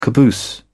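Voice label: "caboose", British English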